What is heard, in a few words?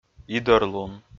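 "идӧрлун", Komi, male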